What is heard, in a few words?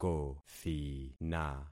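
"kothina", concatenated syllables; Affixing experiment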